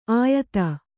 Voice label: "ಆಯತ", kannada